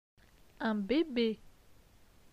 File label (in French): "un bebe", francais; phonetique; les consonnes